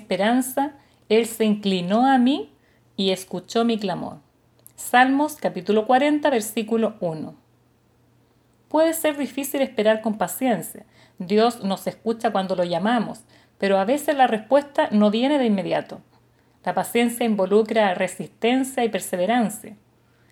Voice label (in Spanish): devocional